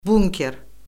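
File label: "bunker", Azerbaijani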